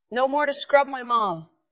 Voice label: Dubbing cloned es F2